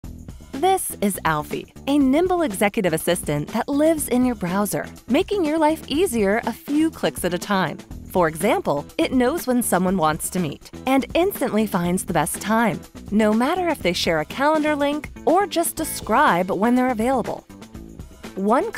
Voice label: Explainer Video Sample; Alfie